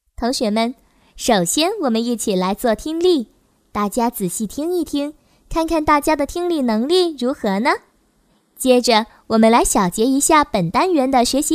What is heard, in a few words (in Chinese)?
多媒体 培训课件 学习